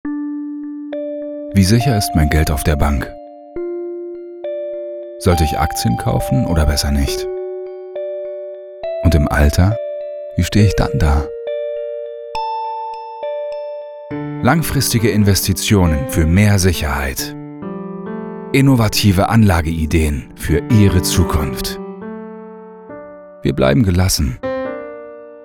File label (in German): SPOT; Bank